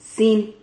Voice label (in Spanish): "cin", Silabas